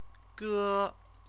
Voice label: "ge", Chinese; CTCFL; University of Oxford